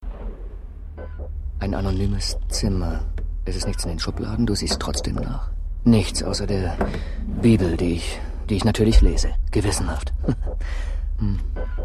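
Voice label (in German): Synchron, Sprecher, Männer; Memento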